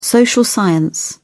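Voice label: "social science", British English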